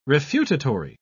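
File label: "refutatory", British English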